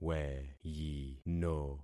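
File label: "weyino", concatenated syllables; Affixing experiment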